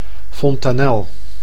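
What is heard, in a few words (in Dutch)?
"fontanel", Dutch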